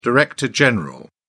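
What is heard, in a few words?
"director general", British English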